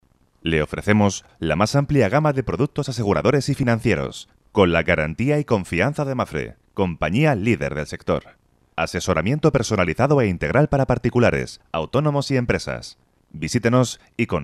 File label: dubbing voiceover actor doblaje spanish